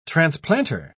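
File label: "transplanter", British English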